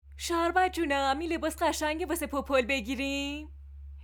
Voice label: narrator